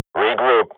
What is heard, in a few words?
radio bot regroup, Counter-Strike swat; Global Offensive; player vo